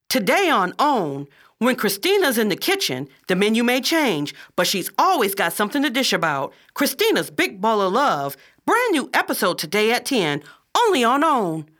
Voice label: promotional